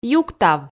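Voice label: "юктав", Komi, female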